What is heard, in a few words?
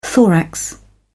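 "thorax", British English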